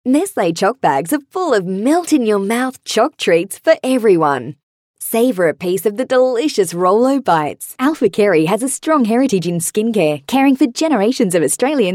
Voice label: Hard Sell